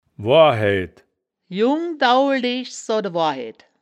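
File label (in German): "woarhaeaed", Wittlicher Wörterbuch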